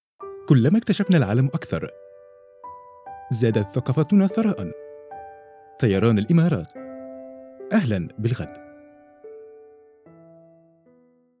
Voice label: flight line ad; Emarate

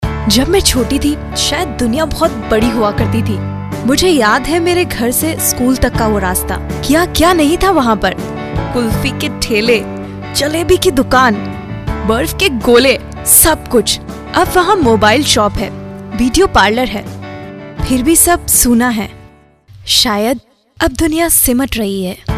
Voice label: friendship promo hindi

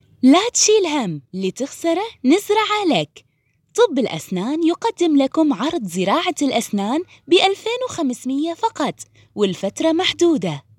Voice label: saudi

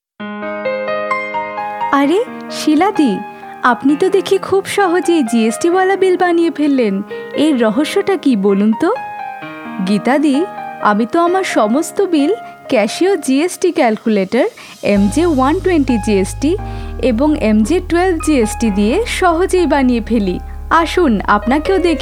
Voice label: EXPLAINER BENGALI

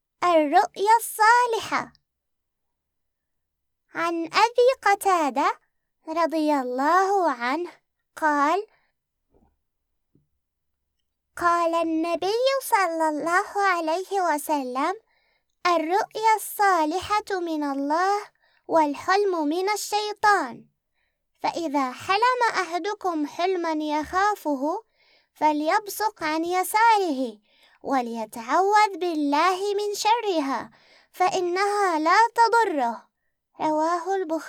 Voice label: Kid voice hadith

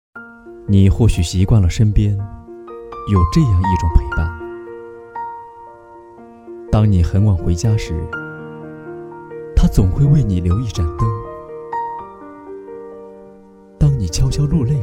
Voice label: Narration Male

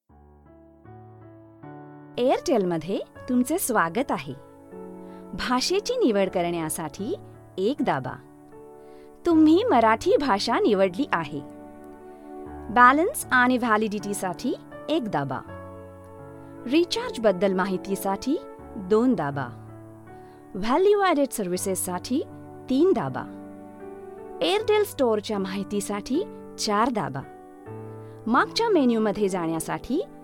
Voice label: IVR marathi

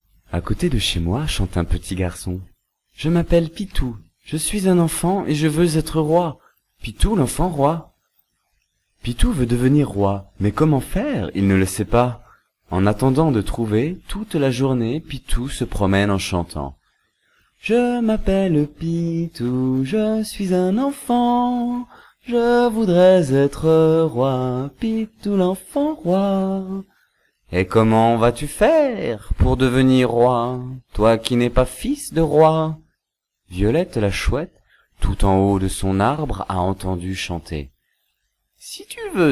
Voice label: French

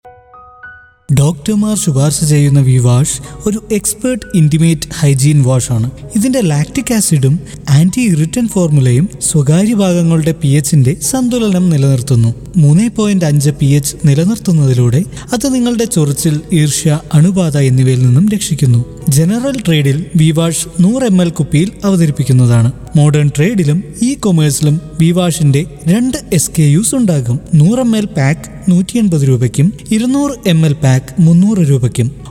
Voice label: MALAYALAM CORPORATE

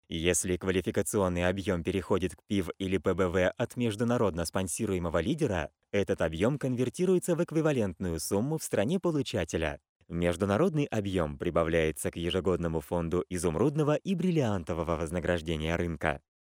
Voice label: male

